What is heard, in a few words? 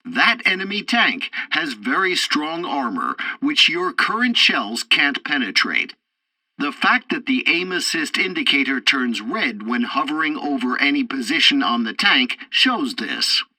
tutorial tank arcade fourth target intro, english; War Thunder